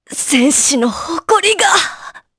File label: Dead, jp; Naila; kingsraid